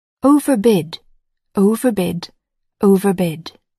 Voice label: "overbid", English

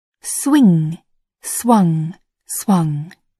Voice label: "swing", English

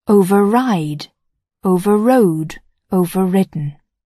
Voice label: "override", English

